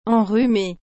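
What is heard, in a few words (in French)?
"enrhumee", French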